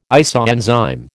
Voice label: "isoenzyme", American